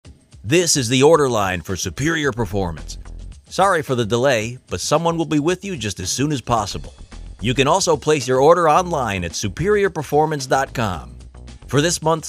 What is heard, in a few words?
superior, ivr